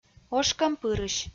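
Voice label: "ошкӧмпырысь", Komi, female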